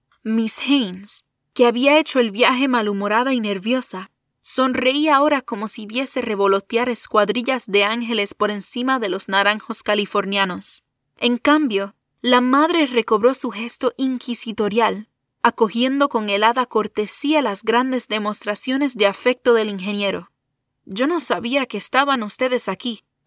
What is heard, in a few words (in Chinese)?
西班牙语女 外语 小语种